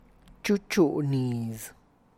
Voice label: store the corn, Tlacochahuaya Zapotec; (Spanish) almacerar mazorca; txu txu' niz